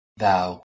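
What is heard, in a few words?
"thou", English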